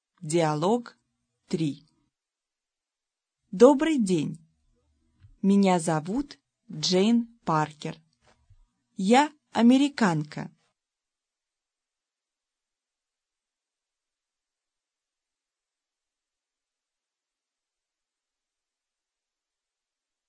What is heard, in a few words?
dialog, russian